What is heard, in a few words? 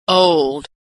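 "old", American English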